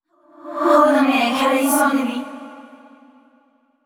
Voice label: Angry; Yamiko

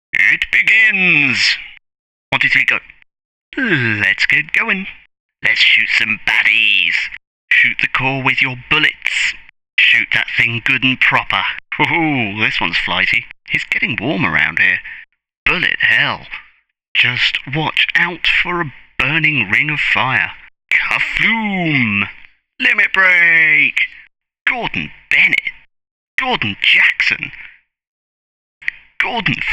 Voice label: Narration; Really Big Sky

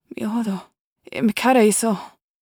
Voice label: worried

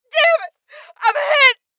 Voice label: Voices Injury Female; rebel cops 2019 gamerip

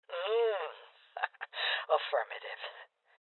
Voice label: Confirmation, Female Old; Rebel Cops

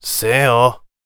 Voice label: warrior conversation emotion question

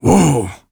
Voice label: VO Warrior emotion woah

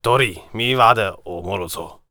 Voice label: warrior conversation emotion neutral

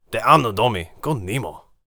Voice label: warrior conversation emotion neutral

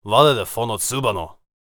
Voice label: warrior conversation emotion question

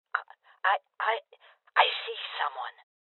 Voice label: Voices Enemy Spotted Female Old; rebel cops 2019 gamerip

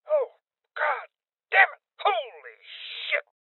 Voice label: Voices Heavy Losses Male Old; rebel cops 2019 gamerip

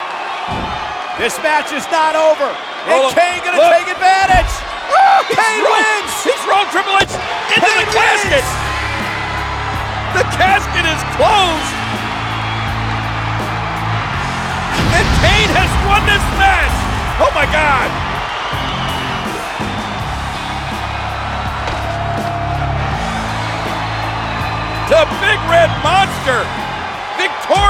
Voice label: english us; WWE 2K15; PlayStation 3

